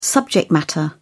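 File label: "subject matter", British English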